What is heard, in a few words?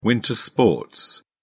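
"winter sports", British English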